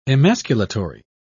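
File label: "emasculatory", British English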